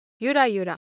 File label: Japanese Motion, Ideo Phones